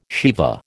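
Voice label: "Shiva", American English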